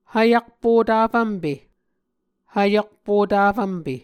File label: "hvyakpo tafvmpe", Muscogee